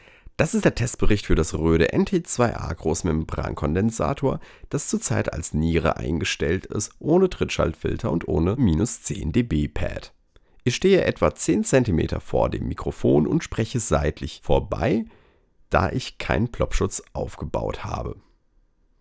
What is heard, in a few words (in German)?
rode nt2-a sprache